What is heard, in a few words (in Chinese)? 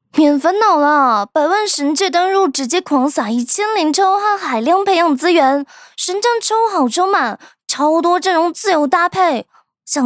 女 台湾腔信息流